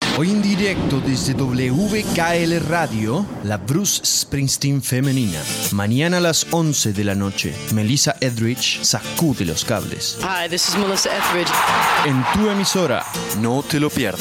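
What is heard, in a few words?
Latin American Spanish, Commercial; GoLocalise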